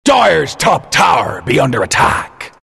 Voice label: announcer top tower attack; Capn; dire

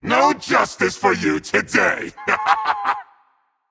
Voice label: Taunt Directed; Howler Demon Hun Batz; Athena; Smite

